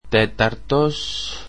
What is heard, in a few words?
greek fourth